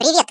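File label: "Hello", Russian; hedgewars; Default ru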